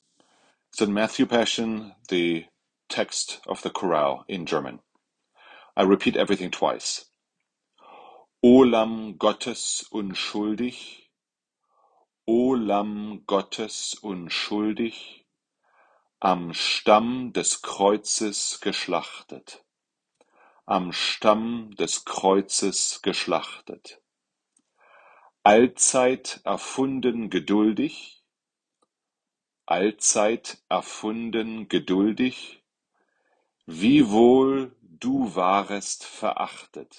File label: Pronunciation Guide